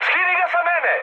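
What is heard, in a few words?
Serbian aircraft damaged mood high, speech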